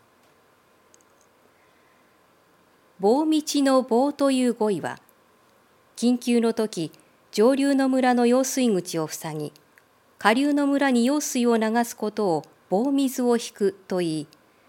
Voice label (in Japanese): 朗読; 信玄の棒道